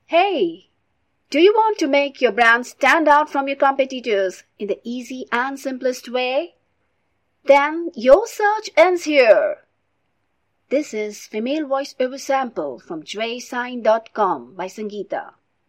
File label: Real English Female Voiceover Sample; Jvesign